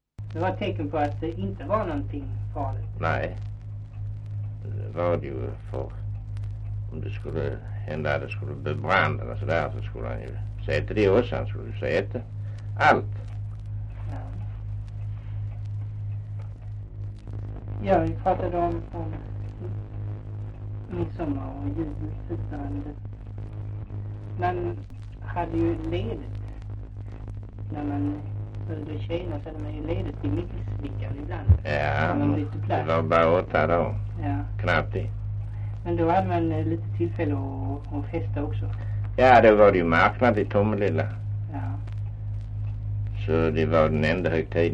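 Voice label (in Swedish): Östra Ingelstad Skåne, dialekter; Folkminnen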